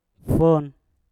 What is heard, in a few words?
"fon", Mpur; penuh